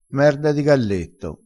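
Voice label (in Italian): "merda di galletto", dialettale crespinese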